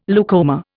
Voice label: "leucoma", Indian